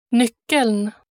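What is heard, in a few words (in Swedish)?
"nyckeln", Swedish; 335-nyckeln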